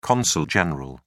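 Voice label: "consul general", British English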